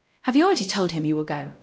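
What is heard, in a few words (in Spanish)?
Voice Acting, es, female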